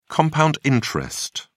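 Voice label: "compound interest", English, British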